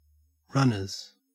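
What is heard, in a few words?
"runners", Australian English; pronunciations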